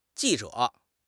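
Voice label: "ji4 zhe3", Mandarin Chinese; HSK; HSK4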